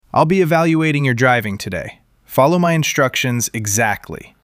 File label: Male Follow Instructions